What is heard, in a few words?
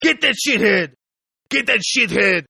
Tough guy English, Tough Hispanic Male Saying, Yelling